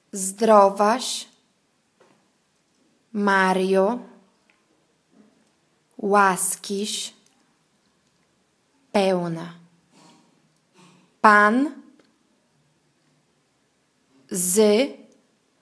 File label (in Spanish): ave maria polaco pausado